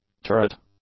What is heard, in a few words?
"turret", English; nouns